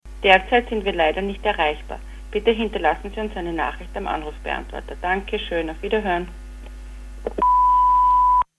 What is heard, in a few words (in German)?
Anrufbeantworter